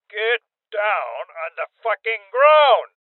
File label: Order To Surrender Male Old; rebel cops 2019 gamerip; Voices